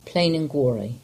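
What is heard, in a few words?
"Plain an Gwarry", English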